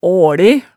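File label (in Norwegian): "aale", Hemsedal dialect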